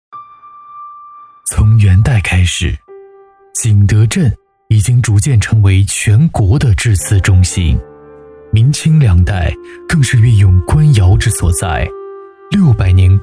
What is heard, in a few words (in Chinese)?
纪录片 低沉质感; 景德镇